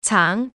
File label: slot fruits female